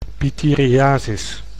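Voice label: "pityriasis", Dutch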